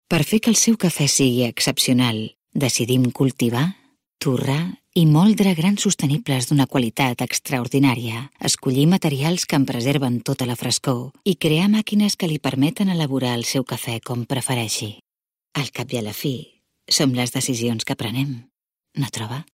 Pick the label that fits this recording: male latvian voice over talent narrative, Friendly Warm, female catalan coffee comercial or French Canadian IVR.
female catalan coffee comercial